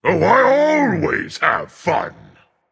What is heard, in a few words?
Emote Dragon; smite; fafnir